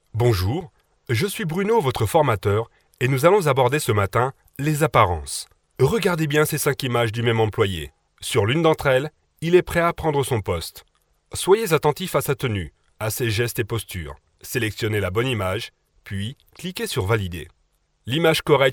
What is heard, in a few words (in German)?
Sprecher Native speaker